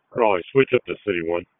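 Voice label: Raleigh Police Northeast District Dispatch, p25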